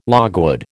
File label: "logwood", American